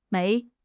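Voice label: "枚", Mandarin Chinese; HSK6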